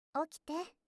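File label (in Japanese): "起きて", Japanese; 目覚ましボイス; JKサキュバス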